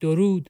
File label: civ male hello, persian